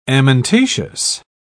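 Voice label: "amentaceous", English, British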